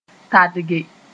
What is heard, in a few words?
"tadge", moore; be tired